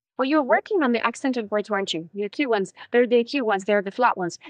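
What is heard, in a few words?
Voice Acting es, female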